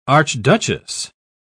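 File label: "archduchess", English, British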